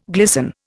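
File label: "glister", Indian; Dictionary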